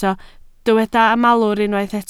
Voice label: "malwr", Welsh